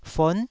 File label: "fon9", taiwanese syllables